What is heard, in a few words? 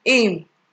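"im", Nigerian Pidgin, female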